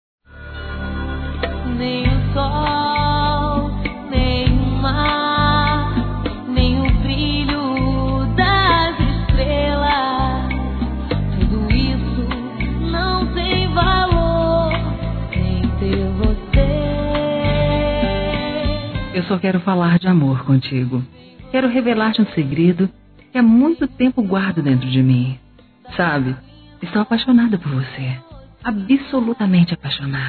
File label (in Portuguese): Curtição Feminino; Telemensagens; Sensuais